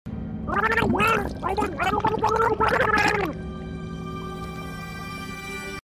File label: Talking underwater